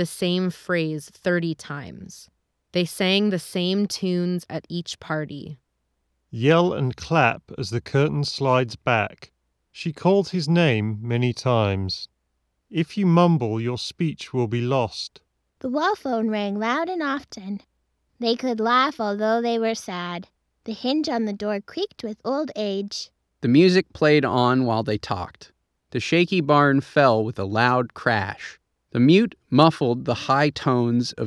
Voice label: Ideal microphone sample; Audio Technica ATH GL3

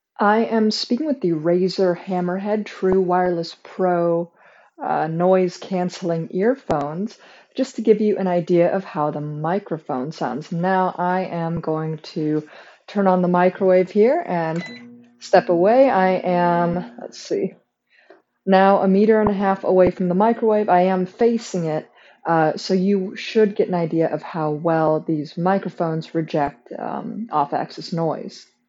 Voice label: Razer Hammerhead True Wireless Pro microphone

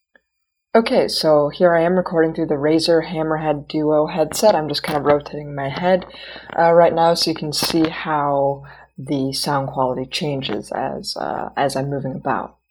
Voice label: Razer Hammerhead duo microphone

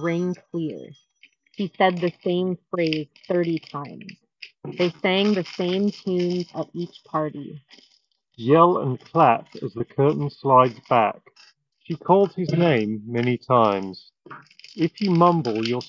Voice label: 1More Comfobuds Mini Office microphone sample

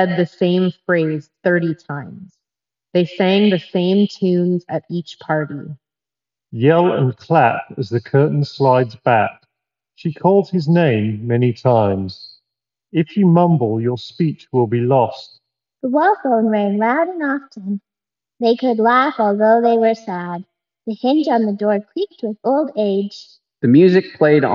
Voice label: Reverb microphone sample; Skullcandy Rail ANC

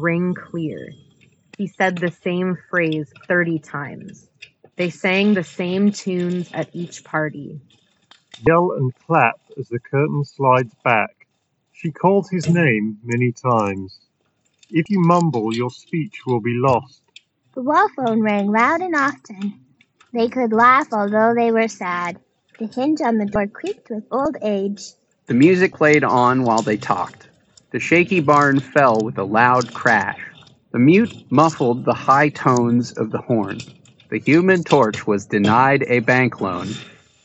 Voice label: Office microphone sample; Steelseries Arctis Gamebuds

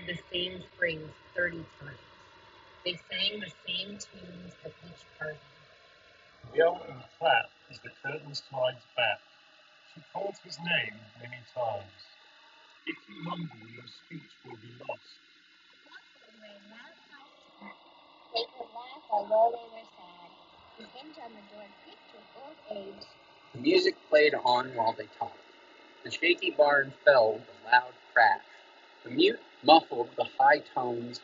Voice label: Apple Airpods Pro 2nd generation Wind microphone

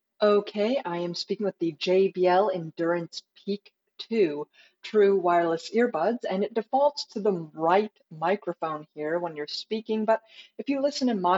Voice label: JBL Endurance Peak II microphone

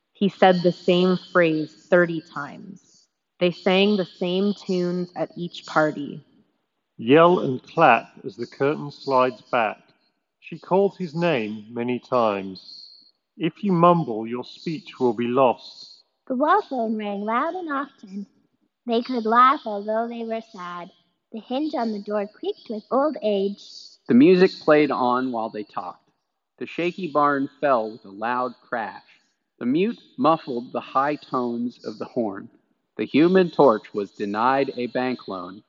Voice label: Beats Studio3 Wireless Reverb microphone

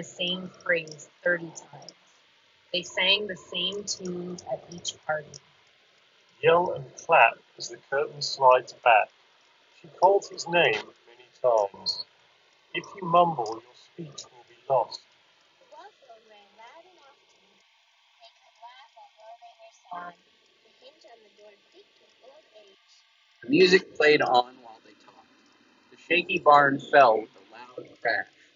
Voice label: Apple AirPods Pro Wind microphone sample

